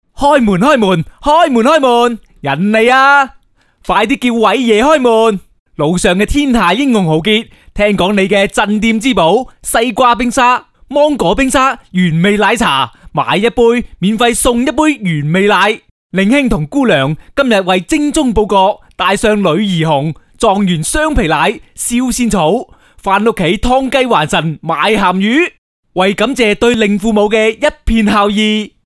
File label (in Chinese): 粤男, 搞怪